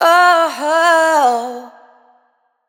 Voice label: adlib Oh Run wet, Raw Vocals; Adlibs